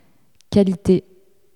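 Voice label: "qualite", French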